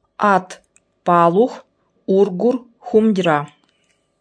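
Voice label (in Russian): НИВХСКИЙ Тигру в тайге хорошо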